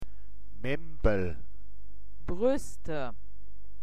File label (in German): membel, mundart; woerterbuch